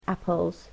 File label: "apples", English